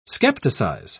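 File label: "skepticize", British English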